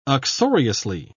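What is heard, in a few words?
"uxoriously", British English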